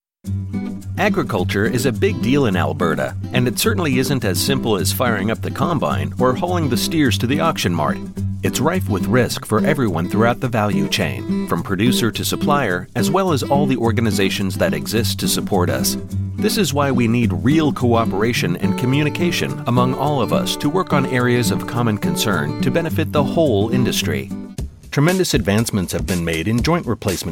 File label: Voice Talent Narration